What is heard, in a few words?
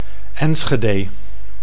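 "Enschede", Dutch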